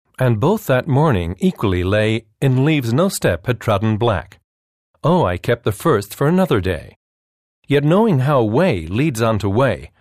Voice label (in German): Eng US Schauspiel Poetisch